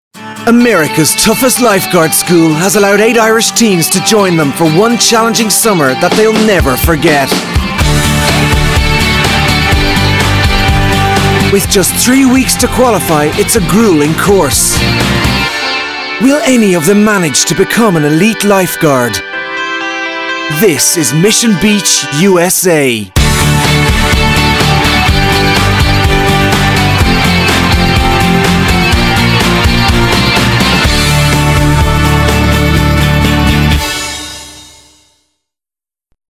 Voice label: Reality TV Narration